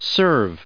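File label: "serves", English, us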